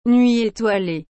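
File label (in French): "nuit étoilée", French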